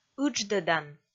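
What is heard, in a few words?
"ыдждӧдан", Komi, female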